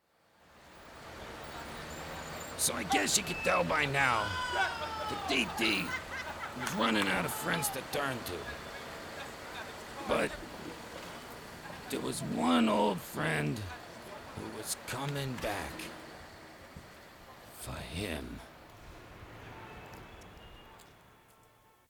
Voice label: Narration